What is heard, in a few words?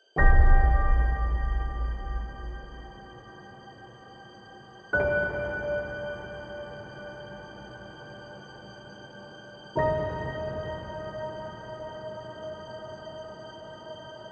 DJ INTRO mit Beep